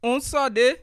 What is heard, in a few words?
i'm from, bassa